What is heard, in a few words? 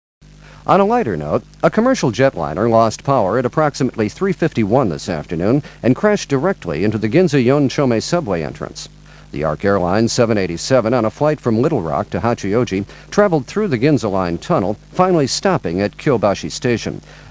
news